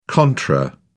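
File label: "contra", British English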